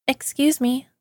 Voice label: excuse me, voice ringtones